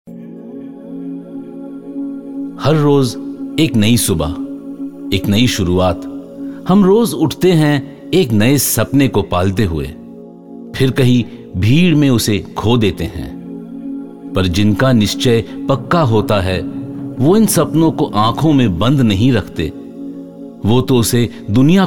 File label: hindi narration, male voice